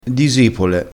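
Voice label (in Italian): "disipole", vocabolario dialettale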